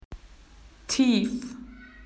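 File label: "deep", Australian English; PictureDictionary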